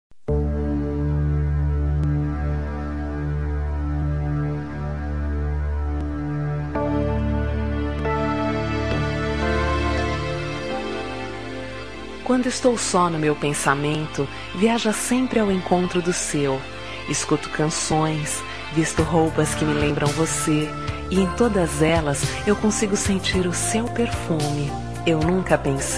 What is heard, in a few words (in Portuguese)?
Romântica, F